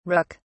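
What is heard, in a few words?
"rook(s)", English, British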